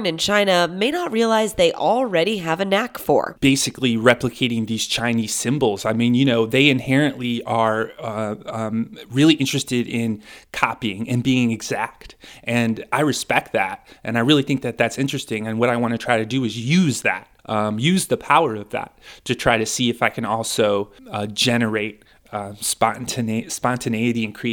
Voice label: CARTOON